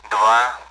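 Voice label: Speech, Russian, AWACS; Digits; DCS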